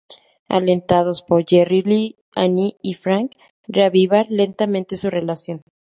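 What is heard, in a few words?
"lentamente", Spanish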